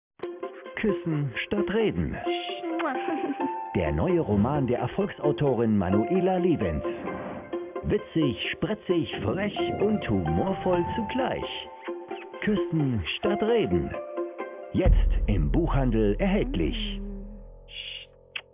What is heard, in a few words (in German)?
TV Spot; Kuessen Statt Reden